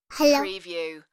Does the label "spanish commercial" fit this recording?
No